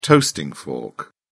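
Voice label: "toasting fork", British English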